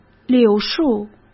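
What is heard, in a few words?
"柳树", Mandarin Chinese; hsk; chinese-flashcards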